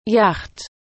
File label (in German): "Yacht", German